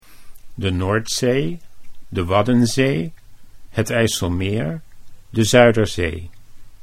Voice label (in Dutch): De Noordzee, Dutch